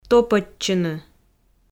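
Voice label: "топӧдчыны", Komi, female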